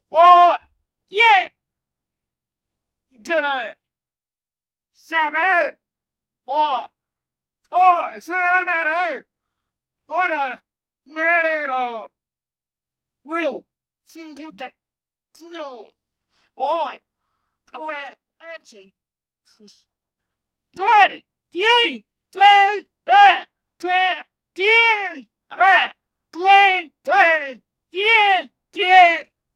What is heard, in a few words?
two voices arguing